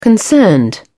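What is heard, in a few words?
"concerned", British English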